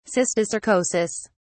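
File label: "cysticercosis", British English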